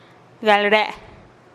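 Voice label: "gal re-e", Teotitlán del Valle Zapotec; beber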